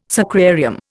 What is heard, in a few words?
"sacrarium", Indian